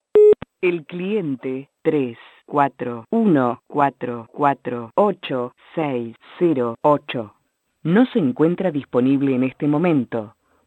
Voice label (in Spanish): mensajes telefonicos